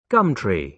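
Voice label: "gum tree", British English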